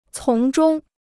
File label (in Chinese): "从中", Chinese